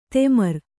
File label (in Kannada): "ತೆಮರ್", Kannada